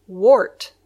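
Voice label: "wart", English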